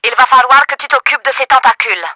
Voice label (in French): TARGET TENTACLES, french; Battle Engine Aquila